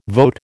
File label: "vote", American